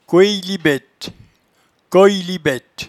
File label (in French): "coeyelibatte", patois jura